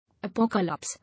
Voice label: "Apocalypse", English